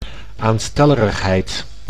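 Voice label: "aanstellerigheid", Dutch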